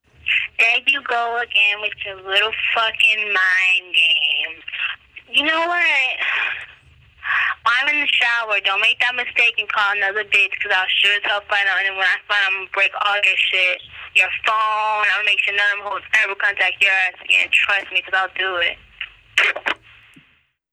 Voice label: phone skit vocals